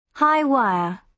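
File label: "high wire", British English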